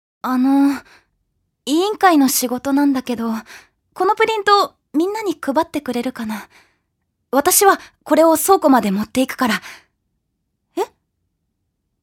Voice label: female voice

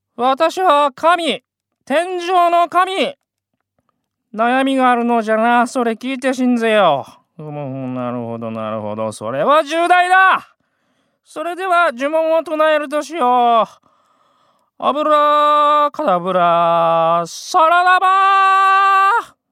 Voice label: male voice

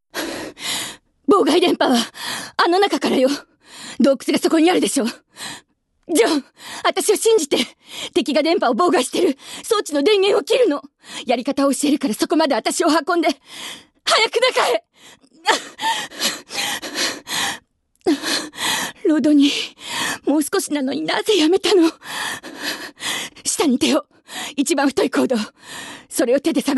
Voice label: female

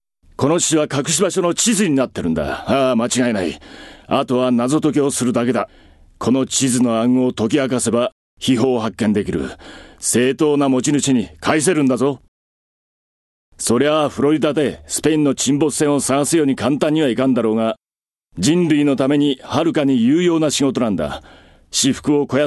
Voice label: male voice

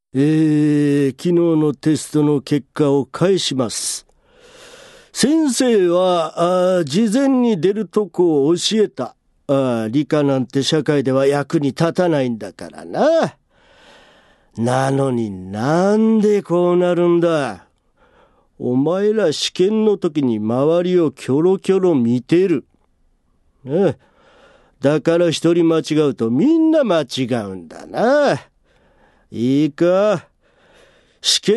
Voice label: male voice

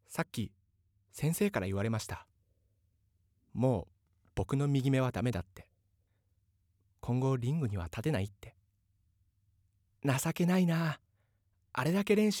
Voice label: male voice

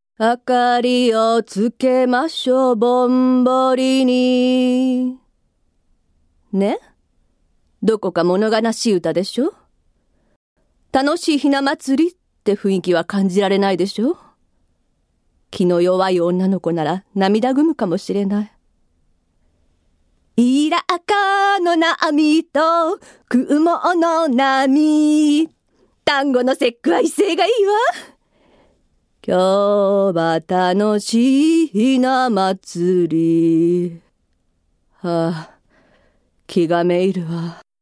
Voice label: female voice

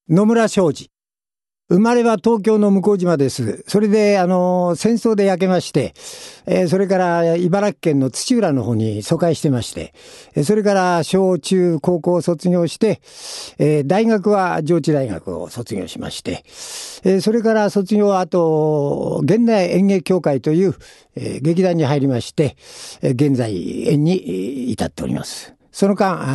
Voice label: freetalk, male voice

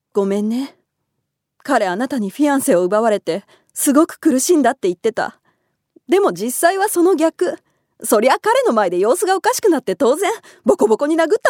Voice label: female voice; セリフ

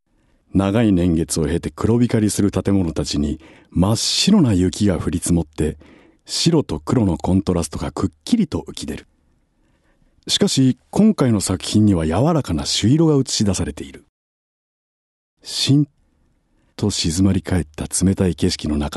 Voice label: male voice

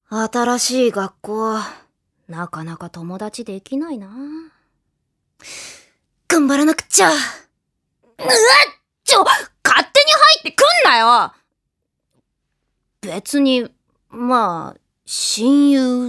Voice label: female voice

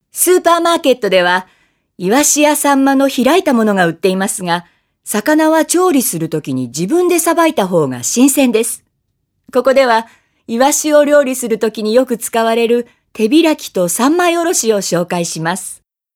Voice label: female voice

